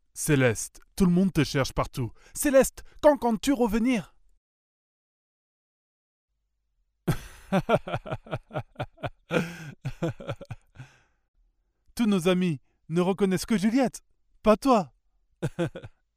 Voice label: locutor frances french dubbing